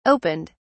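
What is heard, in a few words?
"opened", British English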